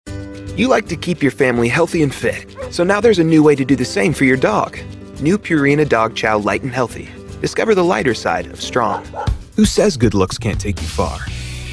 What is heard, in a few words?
English - American